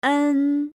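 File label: final "en", Chinese pinyin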